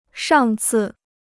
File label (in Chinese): "上次", Chinese